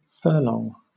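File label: "furlong", British English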